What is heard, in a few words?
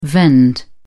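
"vend", British English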